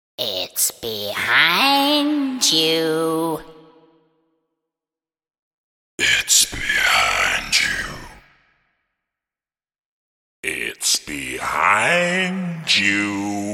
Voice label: Its Behind You, It's Behind You Spoken in mocking sinister voice.; Evil Voices; Horror